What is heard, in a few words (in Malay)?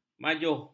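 "majuh", Malay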